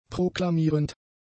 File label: "proklamierend", German; adjectives